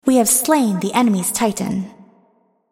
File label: Enemy Titan Slain, Announcer; Unit Voices